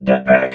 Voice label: "detpack", robot vox